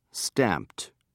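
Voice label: "stamped", British English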